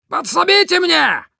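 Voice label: Demoman help me, Russian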